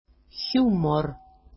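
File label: "humor", Greek; χιoύμoρ; ['çumor]; humour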